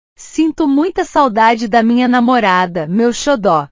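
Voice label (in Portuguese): "xodó", Portuguese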